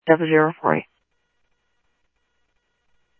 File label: Interop Mutual Aid, scanner audio; G1 Interop MutualAid-InterS; ThompsonPeakHigh